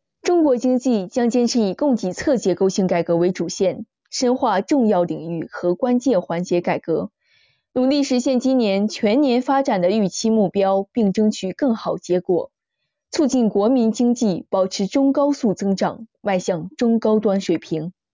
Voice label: 新闻降噪

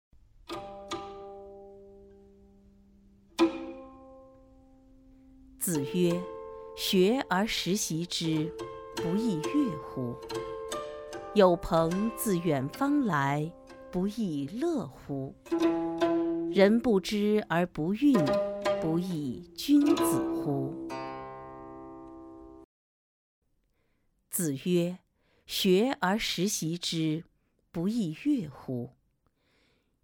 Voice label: 古文诵读论语

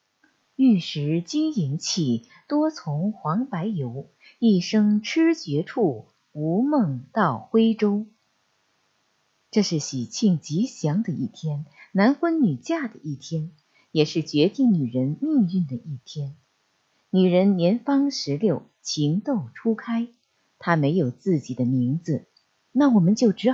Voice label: 旁白

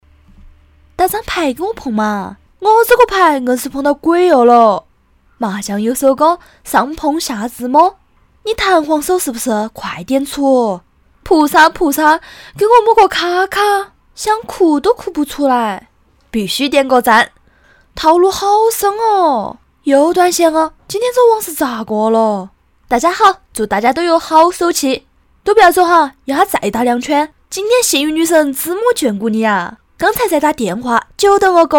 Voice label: 方言棋牌贵阳话女生版

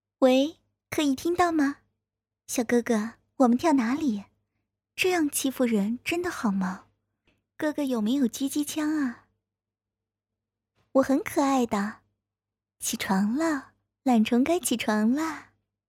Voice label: 温柔

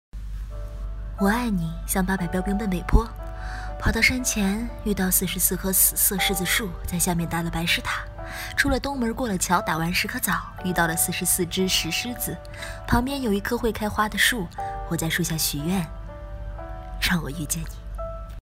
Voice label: 轻松短片配音

